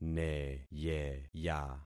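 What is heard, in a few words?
"neyeya", concatenated syllables; Affixing experiment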